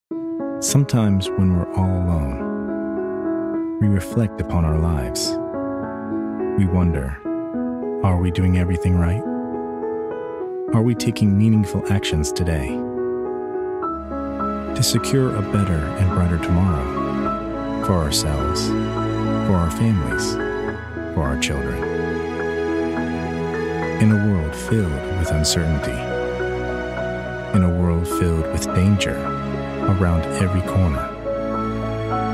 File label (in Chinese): 外籍英男 家庭安全服务广告 深情讲述